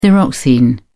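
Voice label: "thyroxine", British English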